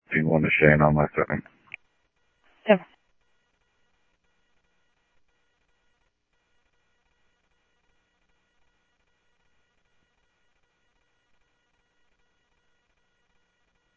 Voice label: police, scanner audio; SCTSDLE PD - PD2; ScottsdalePolice; ThompsonPeakHigh